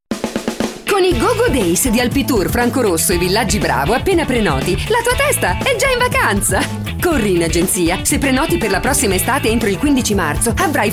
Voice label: F, IT IT